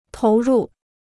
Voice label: "投入", Chinese